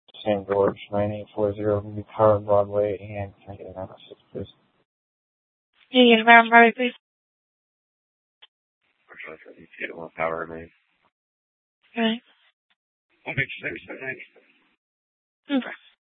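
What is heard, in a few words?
Police Department Dispatch, scanner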